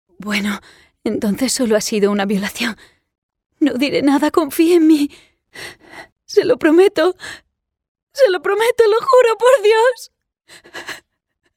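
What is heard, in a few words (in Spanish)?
doblaje España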